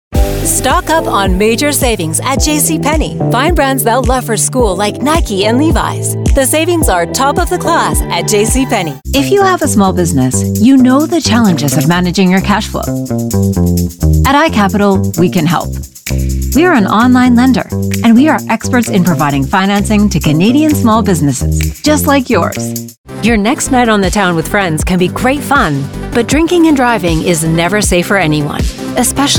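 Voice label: English, female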